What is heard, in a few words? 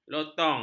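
"lotong", Malay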